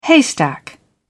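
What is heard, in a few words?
"haystack", British English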